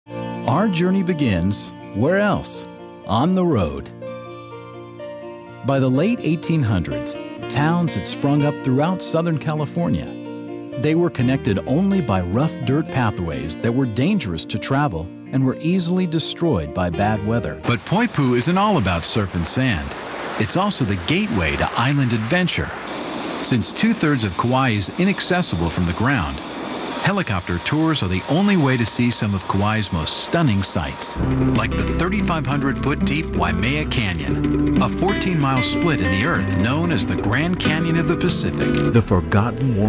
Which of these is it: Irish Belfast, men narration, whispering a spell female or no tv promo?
men narration